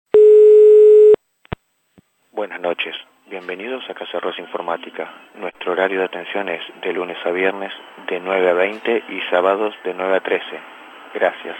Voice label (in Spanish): mensajes telefonicos